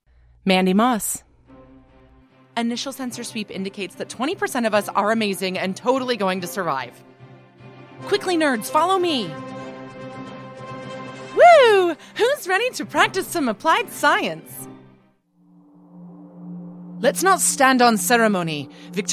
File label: Character; animation